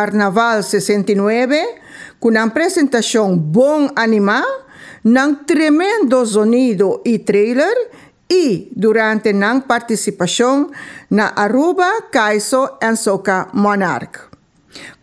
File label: MUSICA anouncement; Carnaval 69; Stichting Musica ta anuncia e banda musical ganador “Band of the Year”; EA News Aruba